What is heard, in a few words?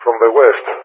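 "from the W", English speech; DCS; Allied Flight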